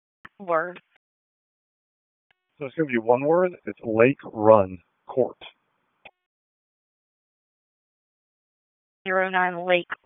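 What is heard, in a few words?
Police Northeast District Dispatch; Raleigh; wake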